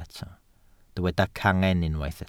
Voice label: "cangen", Welsh